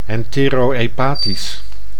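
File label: "enterohepatisch", Dutch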